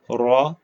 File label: short voyels arabic alphabet